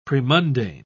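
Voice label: "premundane", British English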